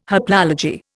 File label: "haplology", Indian